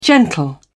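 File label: "gentle", British English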